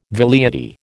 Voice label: "velleity", American

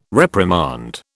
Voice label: "reprimand", British

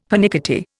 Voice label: "pernickety", Indian; Dictionary

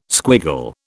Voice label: "squiggle", British

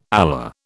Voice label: "Allah", British

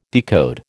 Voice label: "decode", American

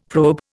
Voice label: "probe", Indian; Dictionary

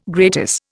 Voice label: "gratis", Indian

